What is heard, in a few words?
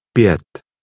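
"pjet", Czech, male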